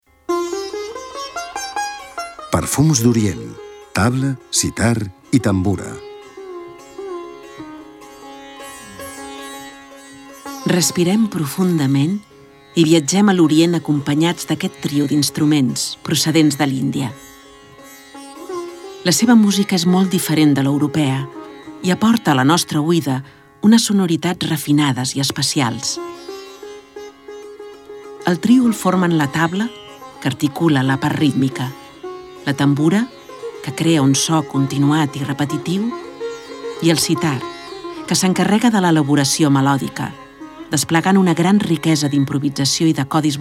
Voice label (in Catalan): audioguia catala; delamusica